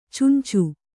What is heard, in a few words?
"ಚುಂಚು", Kannada